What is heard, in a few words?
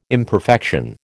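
"imperfection", American English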